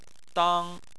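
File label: "dang" first tone, Chinese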